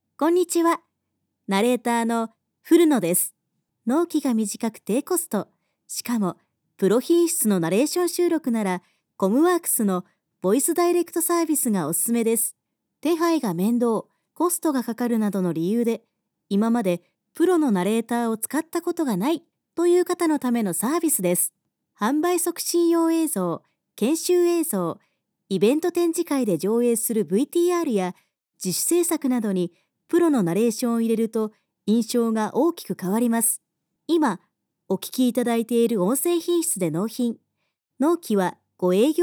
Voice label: narration woman